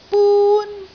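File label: "bun1", Cantonese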